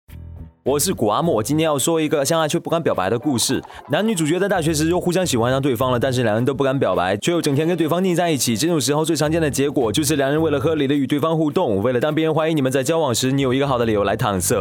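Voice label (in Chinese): 模仿台湾腔; 模仿谷阿莫台湾腔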